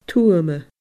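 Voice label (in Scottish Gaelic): "tuama", Scottish Gaelic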